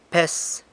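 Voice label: "pes", peso, San Lucas Quiaviní Zapotec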